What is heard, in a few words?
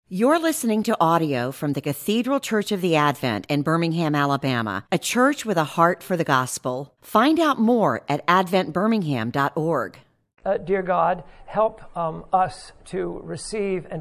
Adult Ed